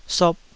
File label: "sop2", Taiwanese